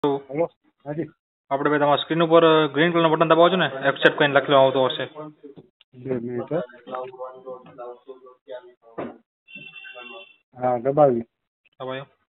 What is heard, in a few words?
Call recording